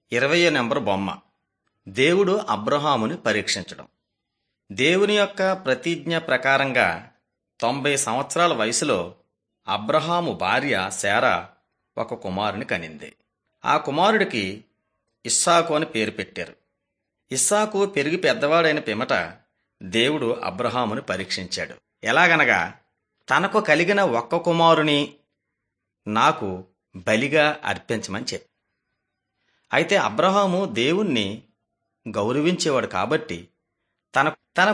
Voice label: telugu bangladesh; beginning with god